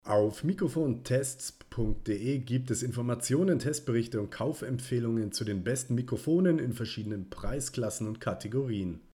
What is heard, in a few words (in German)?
USB Mikrofon Test Pegel75 Abstand normal; Samson Meteor Mic